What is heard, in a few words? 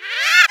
upset